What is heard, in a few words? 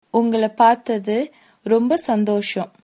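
meet, Tamil, female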